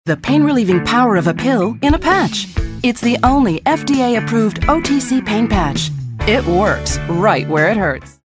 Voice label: Upbeat Friendly Pain Reliever; Commercial Voice Overs; Salonpas

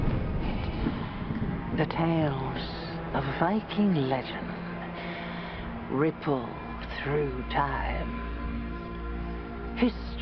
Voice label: Vikings, british accent voice; Made with Clipchamp

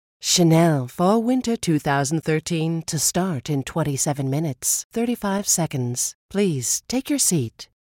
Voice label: Sultry; Commercial Voice Overs; VOG

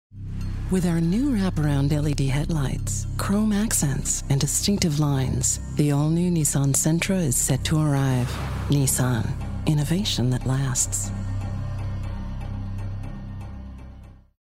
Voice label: Sultry Retail Mature; Commercial Voice Overs; Nissan

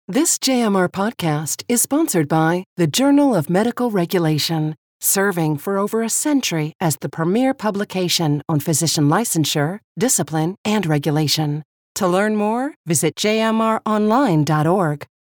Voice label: Podcast; FSMB

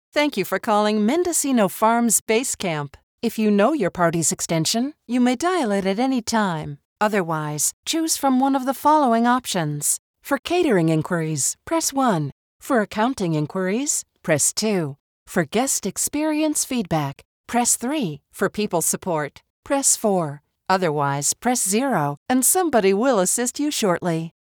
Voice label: Conversational Upbeat IVR Welcome

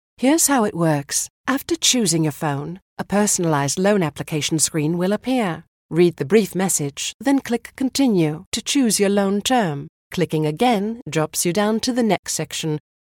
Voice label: British Loan Application; Apple

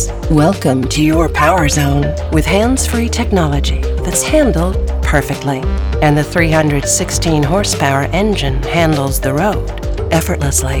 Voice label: Cadillac Luxurious Sophisticated Sexy; Voice Over

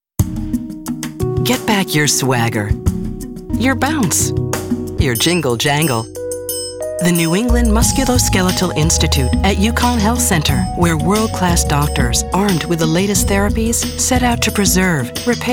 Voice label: Deep Warm Confident; JingleJangle

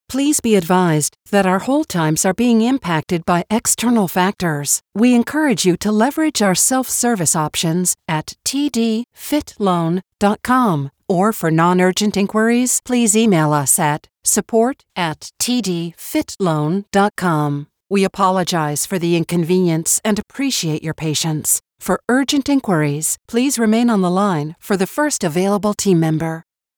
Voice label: Professional Warm IVR Hold Times

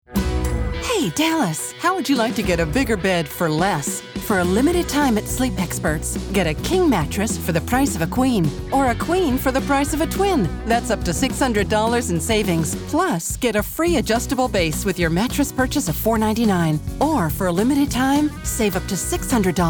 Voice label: High Energy Retail; Commercial Voice Overs; Sleep Experts

